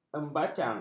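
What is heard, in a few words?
"embacang", Malay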